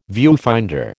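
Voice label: "viewfinder", American English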